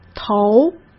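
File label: "投", Mandarin Chinese; hsk; chinese-flashcards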